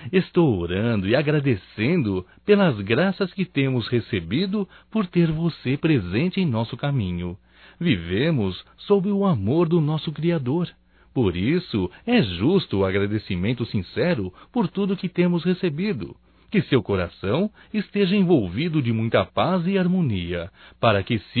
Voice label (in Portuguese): Aniversário Irmã Evangélico Nós Desejamos Masculino; Telemensagens 2017; Aniversarios